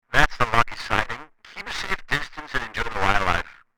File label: CB radio walkie talkie conversation loud distorted